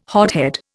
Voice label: "hothead", Indian